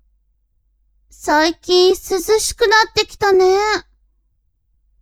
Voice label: woman